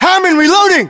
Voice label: inform reloading, english, us; battlechatter